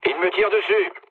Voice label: aircraft damaged mood low, speech fr; War Thunder